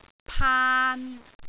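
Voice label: "paan3", Cantonese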